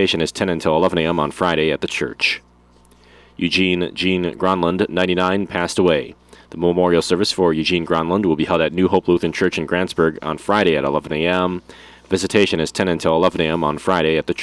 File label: Funeral Announcements